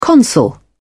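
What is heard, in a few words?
"consul", British English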